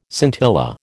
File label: "scintilla", American